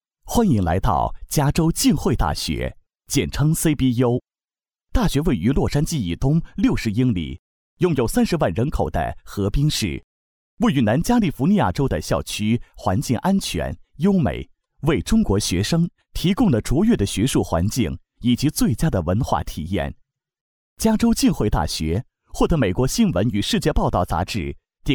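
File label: Mandarin Chinese, male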